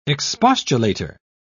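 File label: "expostulator", British English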